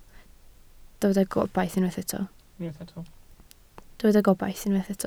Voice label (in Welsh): "gobaith", Welsh